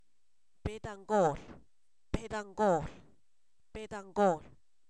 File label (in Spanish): guajolote, Zapoteco, san miguel albarradas; animales; INALI